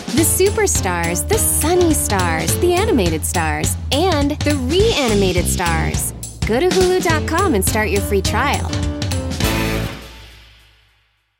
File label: ANIMATED FUN UPBEAT TV PROMO; Hulu